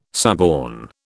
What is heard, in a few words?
"suborn", British